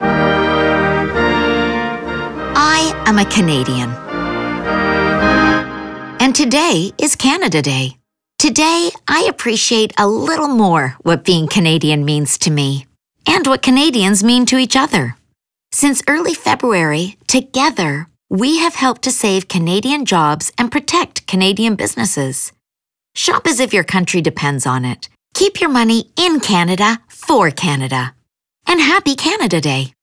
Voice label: radio; pro canada; canada day; radio digital